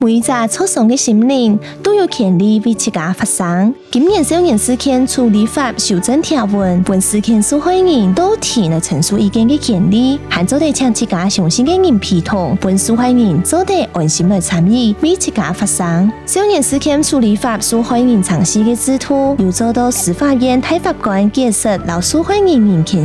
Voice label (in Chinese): 客語; 司法院; 少年事件被害人程序參與制度